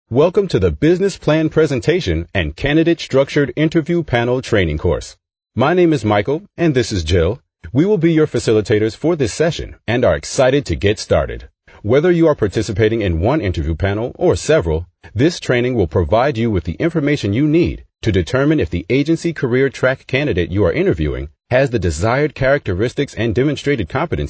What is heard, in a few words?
American English, M